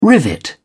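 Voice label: "rivet", British English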